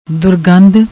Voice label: "durgand", Punjabi